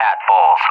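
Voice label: "at bulls", English, USA; DCS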